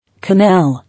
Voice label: "Canal", English